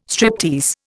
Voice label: "striptease", Indian

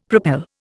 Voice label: "propel", Indian; Dictionary

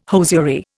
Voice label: "hosiery", Indian

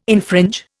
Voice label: "infringe", Indian

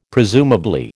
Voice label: "presumably", American

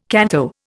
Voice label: "canto", Indian

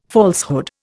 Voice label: "falsehood", Indian

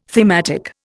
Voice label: "thematic", Indian

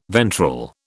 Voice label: "ventral", British

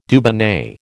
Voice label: "Dubonnet", American

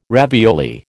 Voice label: "ravioli", American

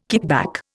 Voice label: "kickback", Indian

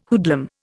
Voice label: "hoodlum", Indian

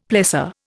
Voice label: "plessor", Indian; Dictionary

